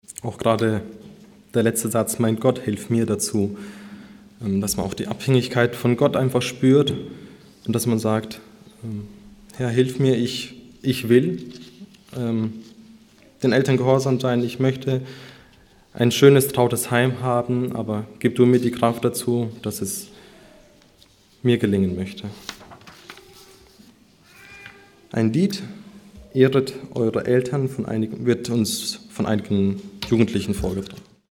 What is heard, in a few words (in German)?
Ansage